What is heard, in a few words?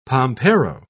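"pampero", British English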